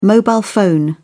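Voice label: "mobile phone", British English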